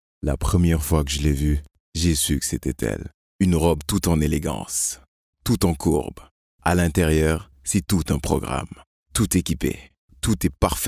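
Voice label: African French, male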